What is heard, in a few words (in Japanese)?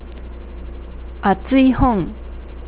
"atsui", nagoyaben